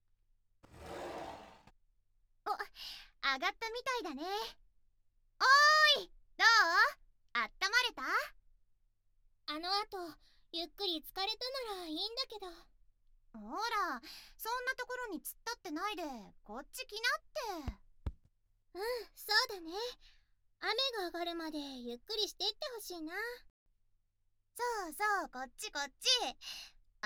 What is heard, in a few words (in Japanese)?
リビングでイチャイチャ耳かき, ASMR